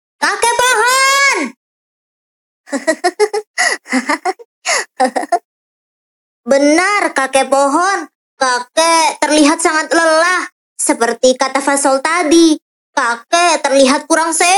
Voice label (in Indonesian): KARAKTER INDO